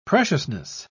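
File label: "preciousness", British English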